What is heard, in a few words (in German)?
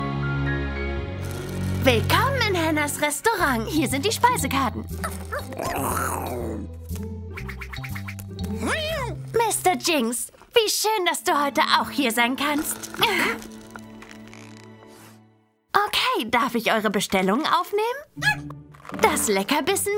Zeichentrick